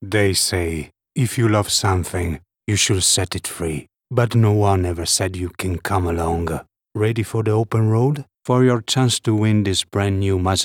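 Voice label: English Italian Accent Commercial; Maserati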